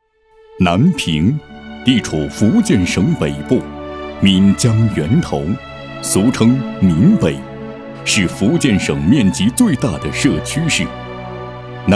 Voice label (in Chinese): 城市宣传片, 大气自然; 城市南平